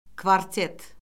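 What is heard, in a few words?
"kvartet", Azerbaijani; Orfoqrafiya Lüğəti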